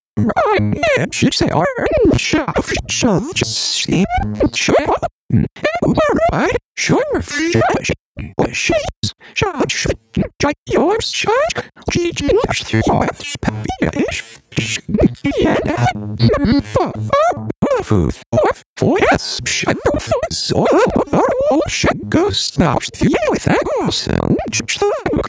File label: macOS a capella; etude3